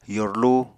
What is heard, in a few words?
"yorlu", Apatani